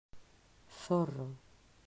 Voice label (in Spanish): "Zorro", pronunciacion; mausschool